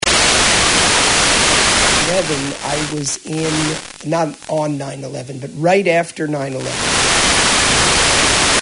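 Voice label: poland english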